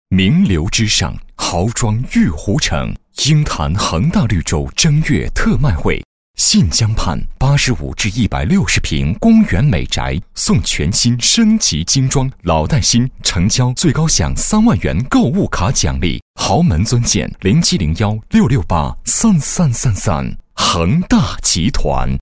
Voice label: 男 广告 房产宣传, 国语男声配音 年轻专题; 恒大

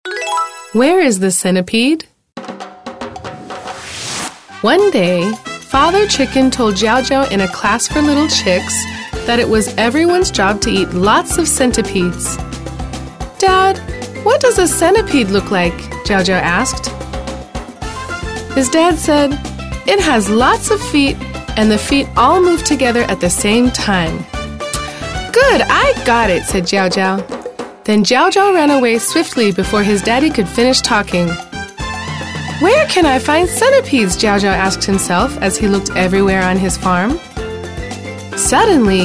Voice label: 美式女声

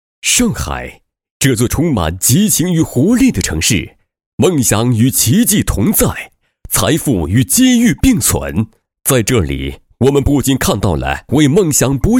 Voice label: 男 专题 金融投资

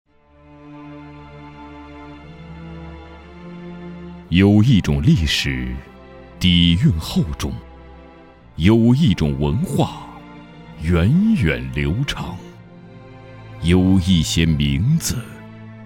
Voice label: 医药宣传, 厚重; 中医药文化

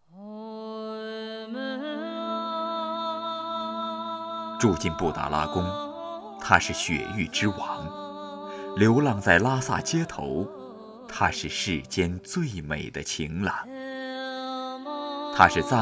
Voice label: 小说片头

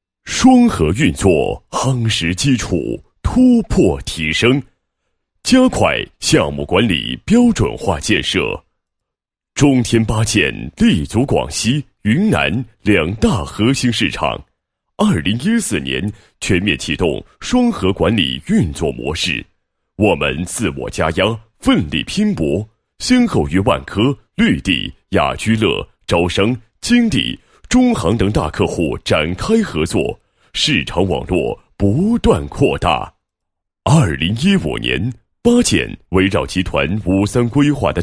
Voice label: 男-企业-项目管理标准化建设, 配音案例

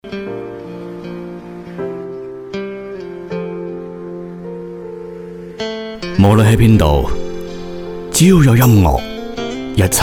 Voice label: 经典粤语产品广告配音

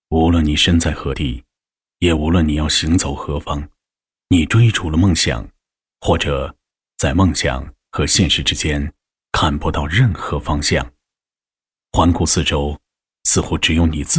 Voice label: 自白独白; 无论你身处何地 也无论你要行走何方

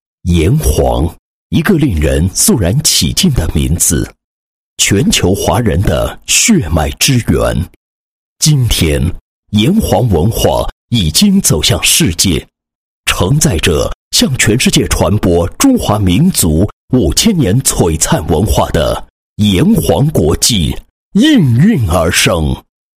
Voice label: 男 宣传广告; 炎黄国际宣传广告

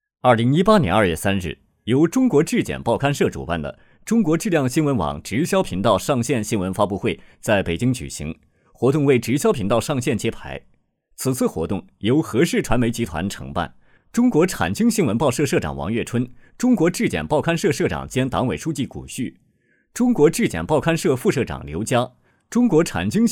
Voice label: 男 新闻播报 直销频道

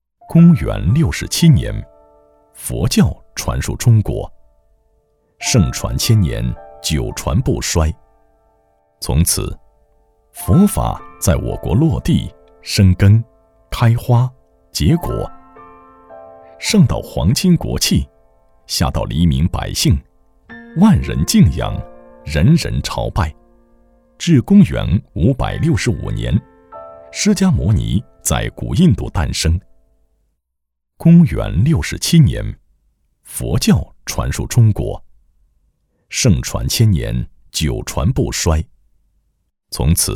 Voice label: 男 历史感纪录片 金泉寺

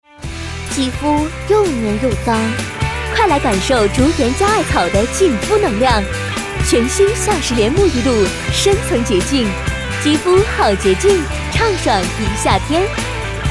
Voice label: 女-广告-化妆品

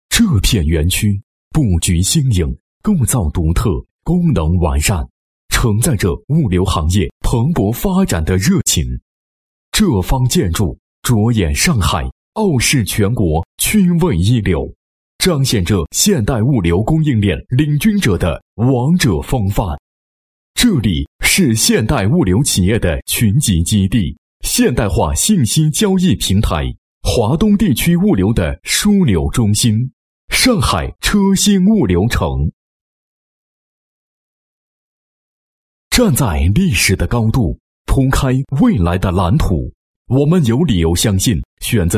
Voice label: 男-招商-物流有限公司园区二期招商, 配音案例; 上海

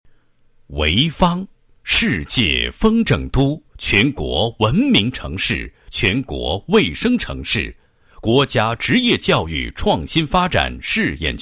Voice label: 男 企业; 潍坊滨海教育投资

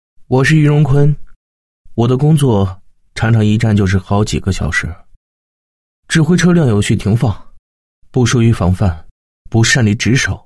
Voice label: 男, 人物专题, 员工自白; 老蒲鲜宣传; 内心独白

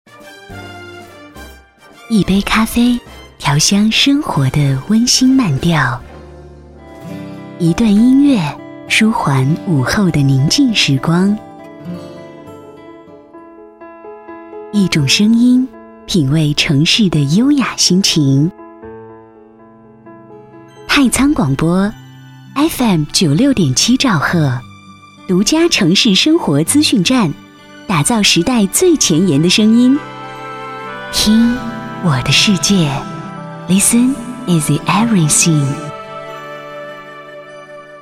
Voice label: 女 生活广播; 太仓生活广播

